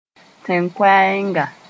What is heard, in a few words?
"tẽn-koɛɛnga", moore